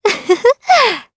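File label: Vox Happy Japanese; kingsraid; voices heroes jp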